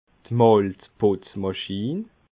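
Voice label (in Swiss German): "malzputzmaschin", Alsatian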